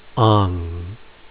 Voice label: ong, phonics